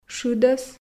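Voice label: "шыдӧс", Komi, female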